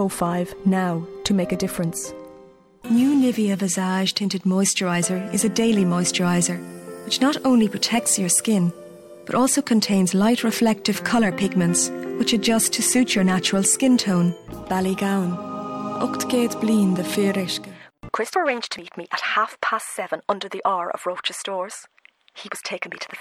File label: English Irish F, accent of ireland